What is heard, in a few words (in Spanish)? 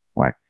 Voice Acting es M